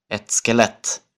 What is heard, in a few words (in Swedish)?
"ett skelett", Swedish